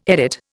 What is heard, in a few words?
"edit", Indian